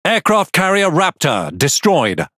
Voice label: Pirate CHAT RAPTOR DEAD; PVE